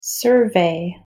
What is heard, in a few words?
pronunciation en survey